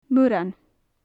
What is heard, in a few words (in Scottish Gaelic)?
"murain", Gaelic; marram; muran; Faclan Nàdair